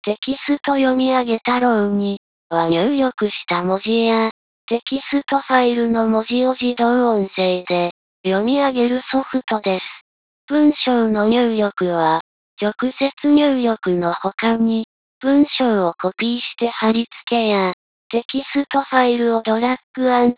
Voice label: lady